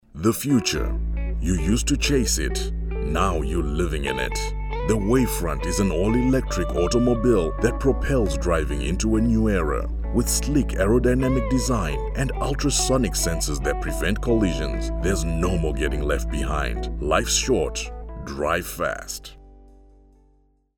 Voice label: Automotive Commercial; 15 Sec